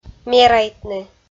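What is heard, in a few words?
"мерайтны", Komi, female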